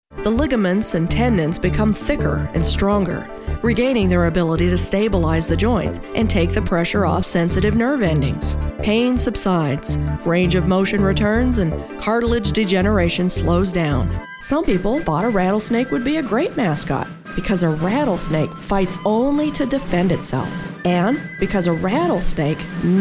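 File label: American narration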